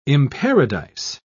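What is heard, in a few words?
"imparadise", British English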